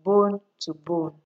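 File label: "bonetobone", Nigerian Pidgin, female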